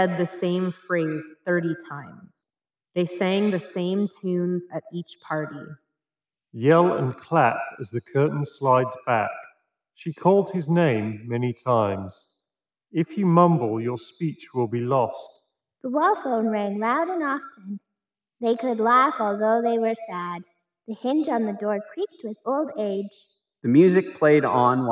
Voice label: Razer Hammerhead Hyperspeed USB Reverb microphone sample